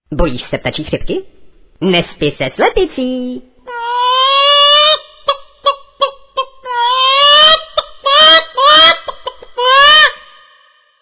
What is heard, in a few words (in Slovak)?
sms hlásky cz